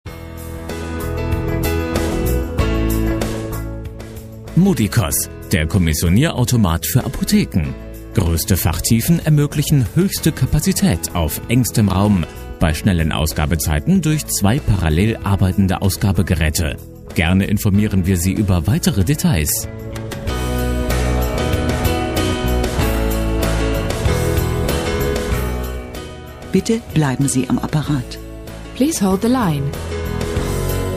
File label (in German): Werbespot; Modicos